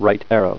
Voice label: Right Arrow, Voice - slow; TalkingKeys

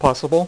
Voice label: "POSSIBLE", dealer's speech; PowerPOKER

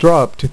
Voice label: "DRAW UP TO", Dealer's Speech; PowerPOKER Demo

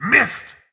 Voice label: german; Spec Ops 2

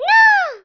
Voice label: nooo, Spanish

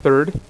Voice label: "THIRD", Dealer's Speech; PowerPOKER Demo

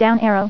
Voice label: "Down Arrow", Voice Female; TalkingKeys

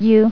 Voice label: "U", Voice Female; TalkingKeys

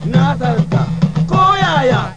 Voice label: Hausa, Africa; Africa!v.3

